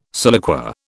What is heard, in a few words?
"siliqua", British